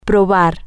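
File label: Spanish, to taste try, female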